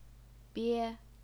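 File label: "bie", Mandarin Chinese, first tone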